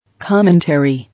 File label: "Commentary", English